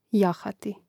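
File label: "jahati", Croatian; mreznik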